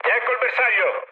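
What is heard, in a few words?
Italian near waypoint mood med, speech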